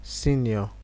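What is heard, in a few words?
"senior", Nigerian Pidgin, male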